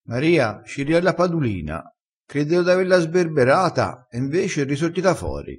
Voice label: "risorti", crespinese

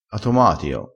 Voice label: "atomatio", crespinese

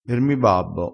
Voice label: "mi", crespinese

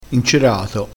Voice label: "incerato", crespinese

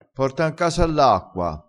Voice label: "pare fatia", crespinese

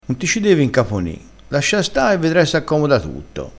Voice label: "incaponissi", crespinese

